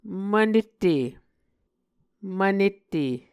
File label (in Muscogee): "mvnette", Muscogee